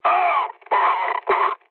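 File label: French damaged pilot mood med; War Thunder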